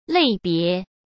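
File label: "类别", Mandarin Chinese; hsk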